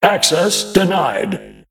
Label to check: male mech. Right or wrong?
Right